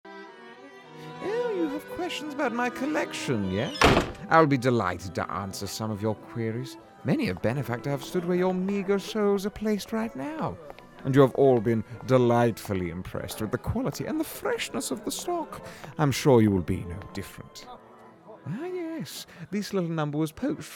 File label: Character